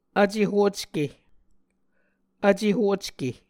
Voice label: "vce hocke", Muscogee; Mvskoke Words with Voicing Consonants; A Dictionary of Creek/Muskogee; SIL International; Onvkv Ohhvyayices